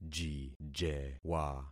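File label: "jijewa", concatenated syllables; Affixing experiment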